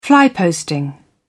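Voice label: "fly posting", British English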